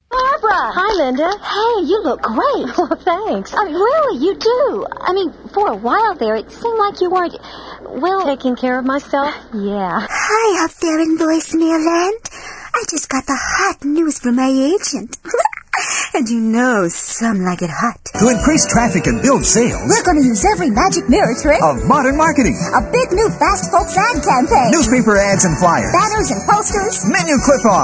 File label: voiceovers